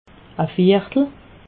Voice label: "viertel", alsace

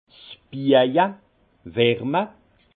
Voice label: "biaje", alsace; vin; ceintrage au feu

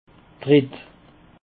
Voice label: "tritt", Alsace

